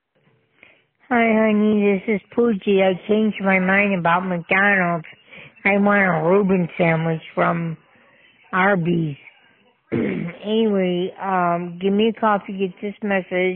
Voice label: voicemail